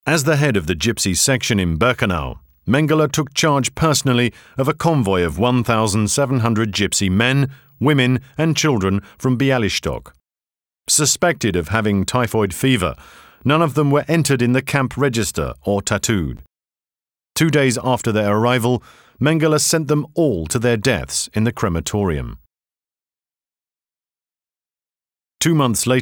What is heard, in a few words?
documentary; Ma Bister